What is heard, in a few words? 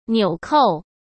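"纽扣", Mandarin Chinese; chinese-flashcards; hsk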